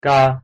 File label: pronunciation "嘎"